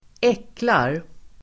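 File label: "äcklar", Swedish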